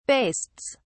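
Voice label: "bastes", British English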